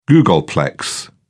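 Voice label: "googolplex", British English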